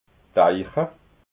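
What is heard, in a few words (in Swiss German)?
"eicha", Alsatian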